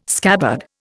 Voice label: "scabbard", Indian